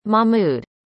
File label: "mahmood", arabic; indonesian boy names